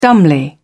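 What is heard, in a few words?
"dumbly", British English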